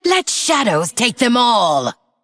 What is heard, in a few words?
Female Undead